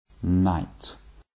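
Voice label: "night", English, RP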